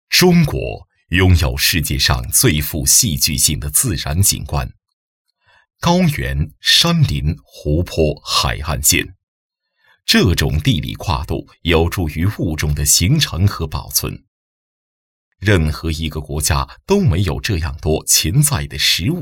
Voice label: 记录片, 干效果; 舌尖

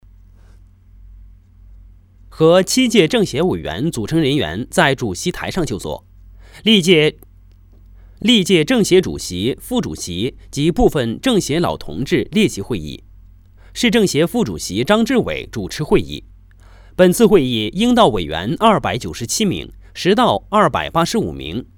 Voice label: 男 政协闭幕新闻, 国语男声配音 解说

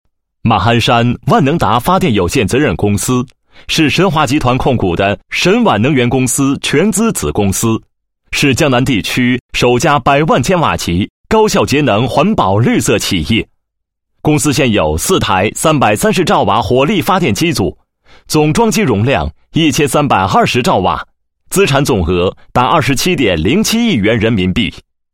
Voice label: 男 企业; 发电有限责任公司介绍及业务推广

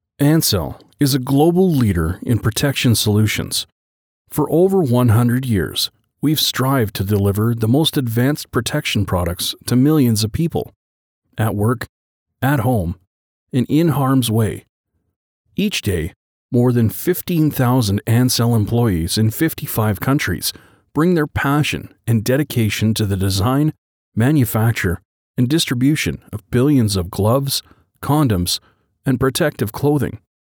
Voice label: 外籍男

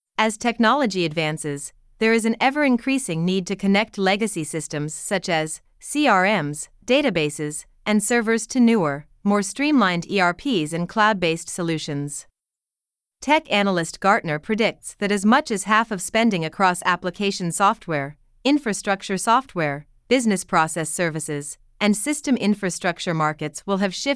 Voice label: 外籍英语女 美式 科学与数据分析

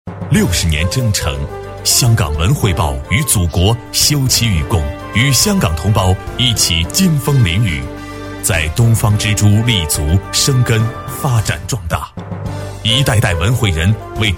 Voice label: 男40 大气专题, 国语男声配音

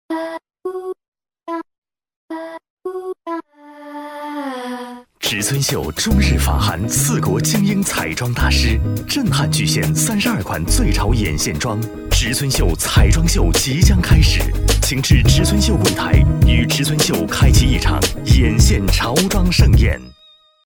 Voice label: 男 广告, 国语男声配音

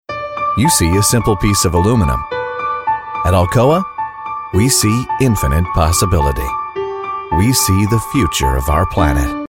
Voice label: 外籍男 解说 Narration; ALCOA

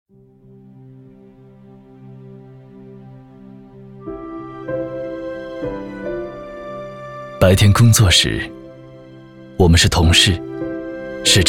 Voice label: 男 广告 温情呵护

